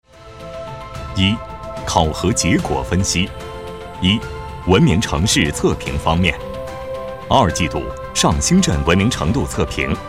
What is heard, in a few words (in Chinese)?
男 汇报; 上兴测评